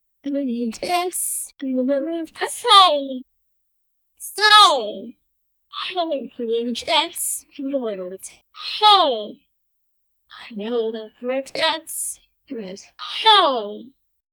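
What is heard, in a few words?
annoying sassy girl saying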